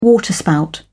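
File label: "waterspout", British English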